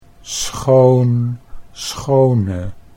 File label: "schoon schone", Dutch